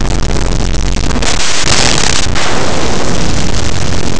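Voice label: "azul", Spanish; laboratori fonetica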